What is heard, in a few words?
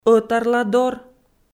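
"ӧтарладор", Komi, female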